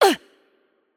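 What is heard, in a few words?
French hurt light voice; bloom minigame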